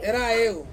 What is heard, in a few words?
"ra yu", to walk, Ansus